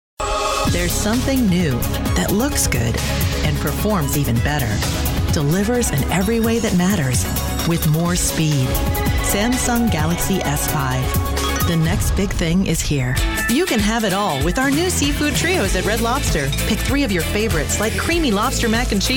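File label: Commercial Female, Sincere, Warm, Sexy; Narration, eLearning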